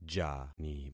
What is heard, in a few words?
"jani", concat syllables; Affixing experiment